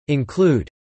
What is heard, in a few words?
"include", English